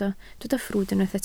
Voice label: "ffrwd", Welsh